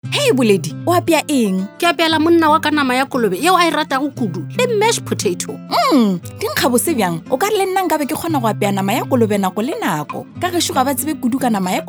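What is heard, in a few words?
North Sotho conversation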